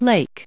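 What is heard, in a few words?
"lake", US English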